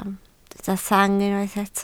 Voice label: "sang", Welsh